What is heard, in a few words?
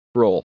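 "roll", English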